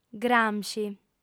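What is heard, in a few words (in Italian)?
lingua sarda, female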